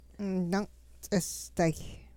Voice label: fishing camp, hupa

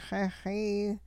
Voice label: children, hupa

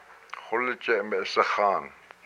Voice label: "my bladder", hupa

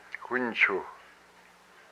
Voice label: "my nose", hupa

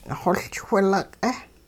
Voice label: swampy place, hupa

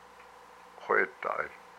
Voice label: "his head", hupa

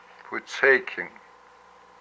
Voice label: back of my head, hupa

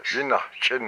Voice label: "Chimariko", hupa

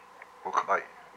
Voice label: inside of my leg, hupa

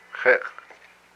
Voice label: "spit", hupa

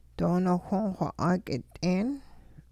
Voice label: death polite term, hupa

